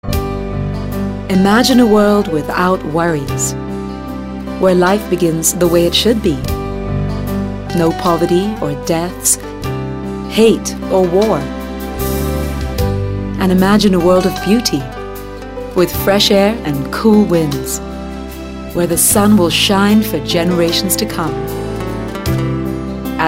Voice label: Asian English

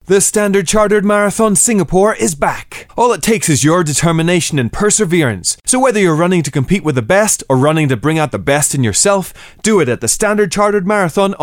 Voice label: Asian English VO Serious

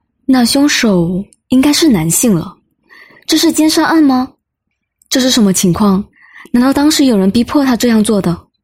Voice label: Mandarin China; Unsolved Case Special

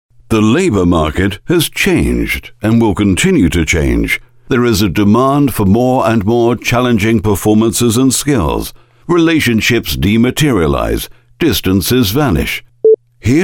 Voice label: UK English Corporate, Male

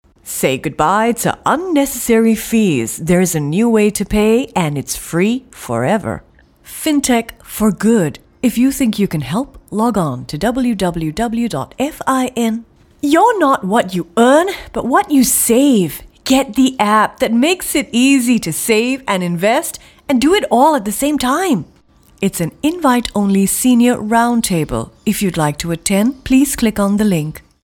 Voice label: Asian English Voice

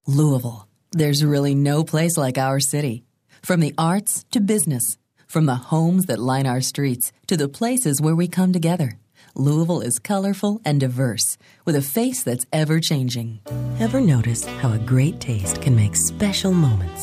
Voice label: US English commercial and IVR reel

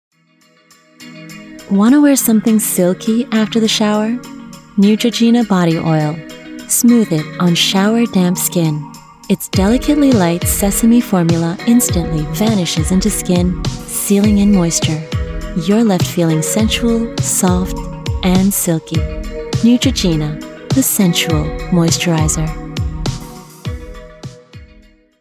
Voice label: US English; Neutrogena

